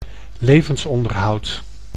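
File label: "levensonderhoud", Dutch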